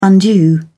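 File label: "undue", British English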